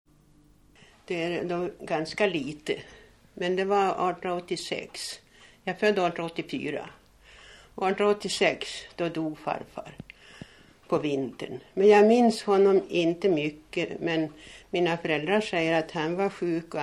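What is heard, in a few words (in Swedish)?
Dingtuna Västmanland, dialekter; Folkminnen; Svenska sagor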